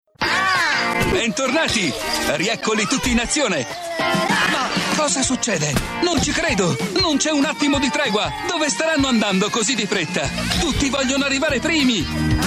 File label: male italian comercial